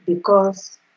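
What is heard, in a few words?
"because", Nigerian Pidgin, female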